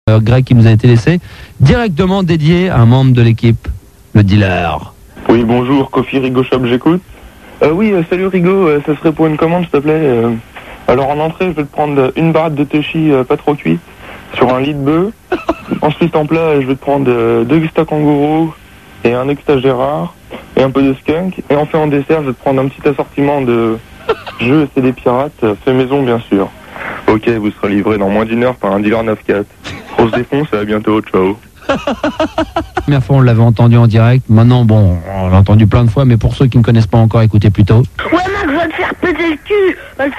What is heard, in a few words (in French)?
repondeur gamin